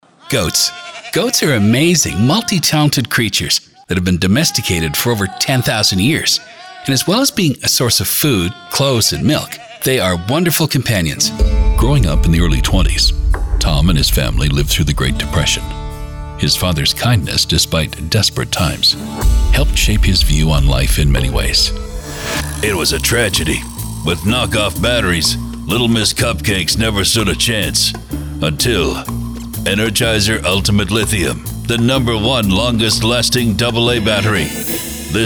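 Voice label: NARRATION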